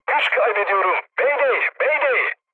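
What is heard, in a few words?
Turkish damaged engine, mood low, speech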